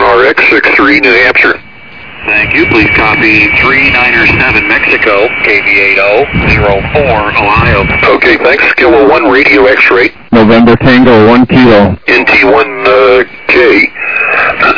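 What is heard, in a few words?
lower sideband 7MHz; SSB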